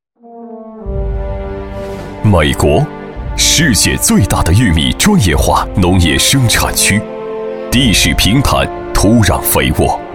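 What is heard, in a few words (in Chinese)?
男 讲述权威－玉米宣传（浑厚）; 大气浑厚; 格林玉米宣传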